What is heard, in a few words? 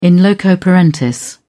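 "in loco parentis", British English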